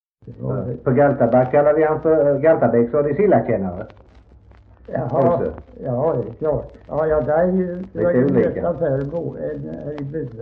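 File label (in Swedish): Värö Halland, dialekter svenska sagor; Folkminnen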